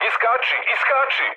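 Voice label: Serbian bailout mood med, speech; War Thunder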